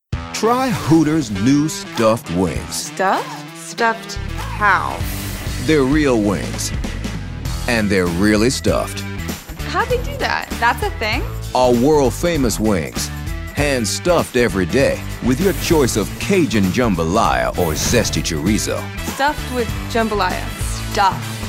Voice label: commercial